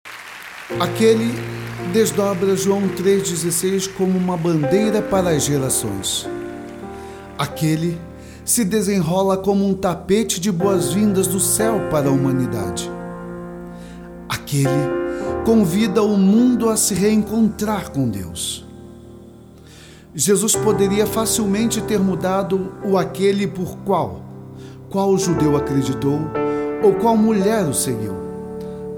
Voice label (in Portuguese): Narracao